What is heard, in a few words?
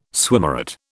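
"swimmeret", British English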